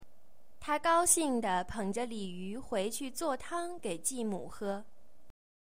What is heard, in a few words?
Mandarin Chinese